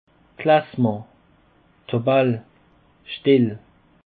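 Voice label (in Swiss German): "classement", Alsatian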